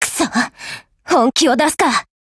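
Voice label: Japanese, Voice; BREAK; GF Alarm; NZ75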